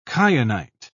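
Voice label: "kyanite", British English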